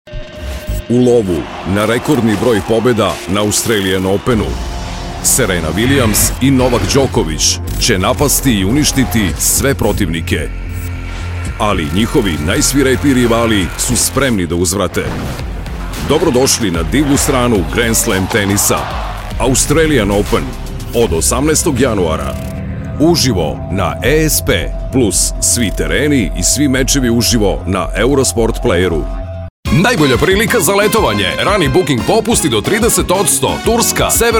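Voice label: Serbian, male, commercial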